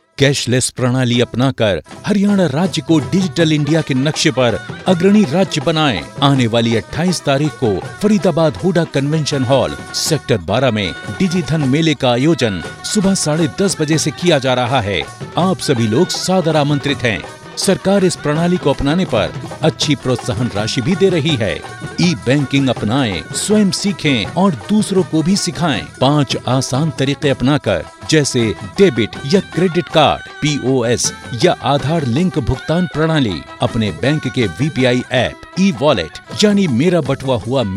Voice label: Male